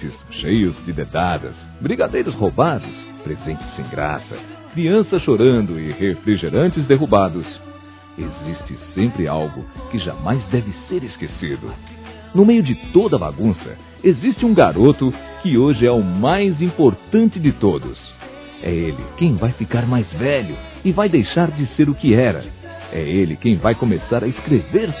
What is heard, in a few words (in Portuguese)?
Aniversário Sobrinho Masculino